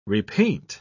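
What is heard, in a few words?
"repaint", English, British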